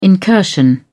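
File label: "incursion", British English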